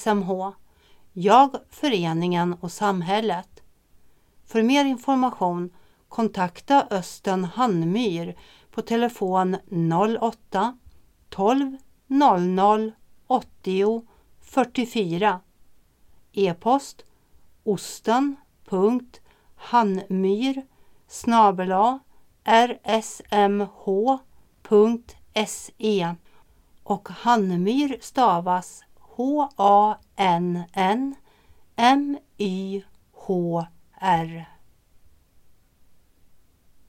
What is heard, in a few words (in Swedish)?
annons; ny studiecirkel fran rsmh